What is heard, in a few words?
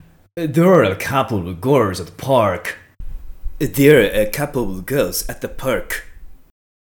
R Sound